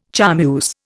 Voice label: "charmeuse", Indian

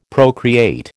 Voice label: "procreate", American

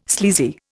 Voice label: "sleazy", Indian

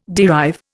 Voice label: "derive", Indian

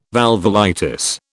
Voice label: "valvulitis", British

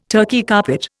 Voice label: "Turkey carpet", Indian

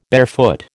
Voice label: "barefoot", American

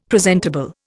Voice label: "presentable", Indian; Dictionary

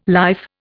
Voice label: "life", Indian

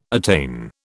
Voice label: "attain", British

